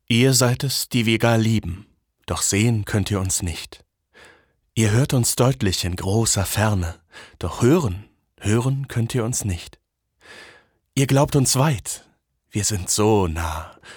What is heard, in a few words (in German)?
poetisch, maenner